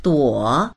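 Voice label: "duo3", Mandarin Chinese; 朵; ひとひらの（花、雲を数える）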